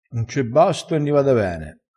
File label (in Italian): "un c e basto", dialettale